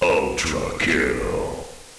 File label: "ultrakill", male